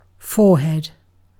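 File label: "forehead", British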